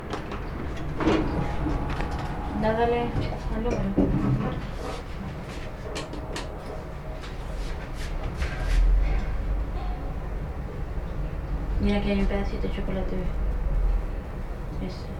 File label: Elevator Conversation